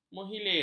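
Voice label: "menghilir", Malay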